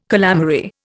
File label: "calamary", Indian